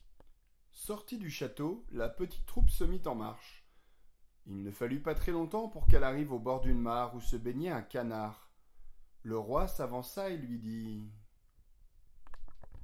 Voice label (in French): narration